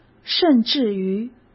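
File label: "甚至于", Mandarin Chinese; hsk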